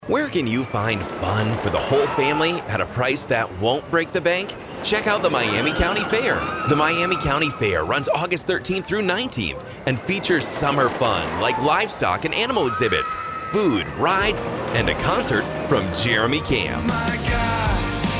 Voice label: commercial audio